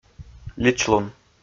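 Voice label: "личлун", Komi, male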